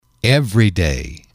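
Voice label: "everyday", english, male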